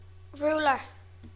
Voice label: "lineal", English; classroom